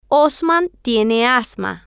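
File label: "osman tiene asma", Spanish, female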